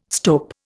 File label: "stope", Indian